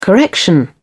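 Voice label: "correction", British English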